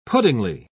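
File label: "puddingy", British English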